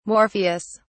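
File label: "morpheus", British English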